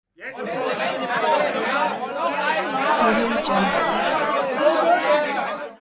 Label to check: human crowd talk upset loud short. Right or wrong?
Right